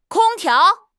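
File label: "kong1 tiao2", Chinese; HSK; HSK3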